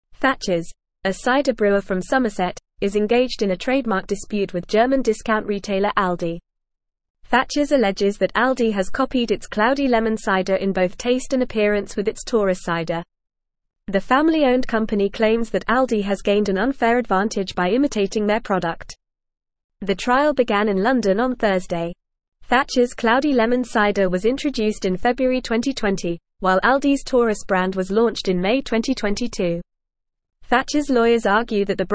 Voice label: English Advanced FAST Reading; English Newsroom; Thatchers vs Aldi Trademark dispute over cider